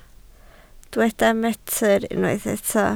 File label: "metr", Welsh